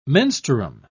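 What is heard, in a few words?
"menstruum", British English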